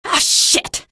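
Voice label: citizen buddy killed, female; vo; npc; source engine; episodic; episode 1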